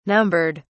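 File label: "numbered", British English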